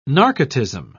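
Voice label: "narcotism", British English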